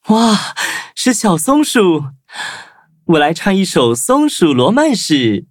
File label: loading, zh-hant; cookie run